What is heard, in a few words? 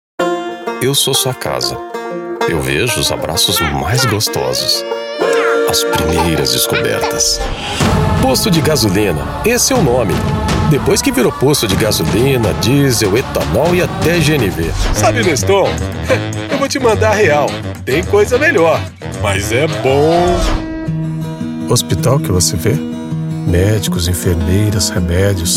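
br, Brazilian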